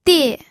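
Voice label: "die", pinyin, fourth tone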